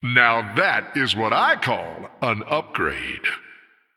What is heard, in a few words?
Male Human After Shop Upgrade